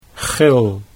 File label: "gil", Dutch